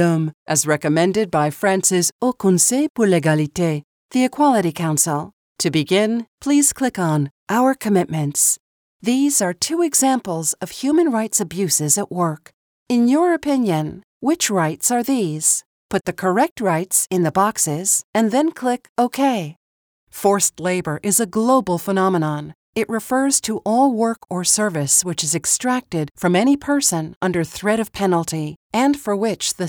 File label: eLearning with French; WHP Global